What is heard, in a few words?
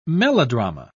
"melodrama", pronounce